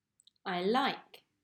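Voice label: "I like", English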